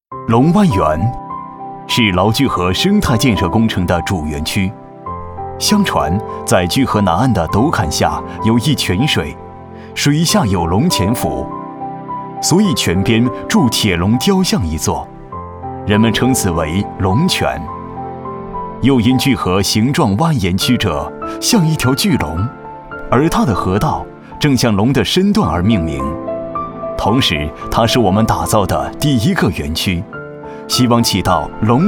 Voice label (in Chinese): 男 大气浑厚 龙湾园